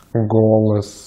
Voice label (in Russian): "голос", Russian; Consonantes en ruso; easypronunciation